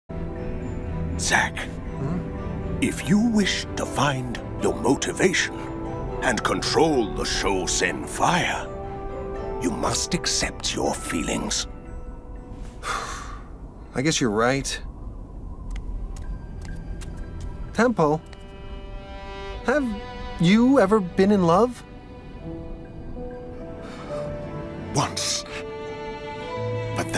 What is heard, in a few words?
Character Animation Reel